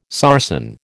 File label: "sarsen", American